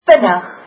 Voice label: quickly, afrikaans